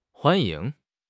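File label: "huan1 ying2", Mandarin Chinese; HSK3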